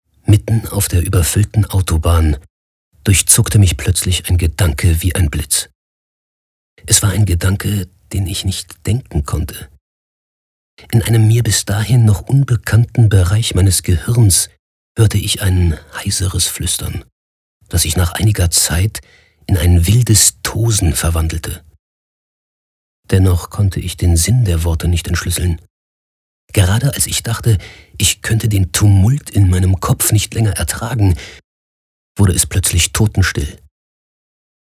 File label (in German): Geheimnisvoll; Nachricht